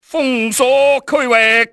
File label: secure the area, cantonese, male; XBOX; enemy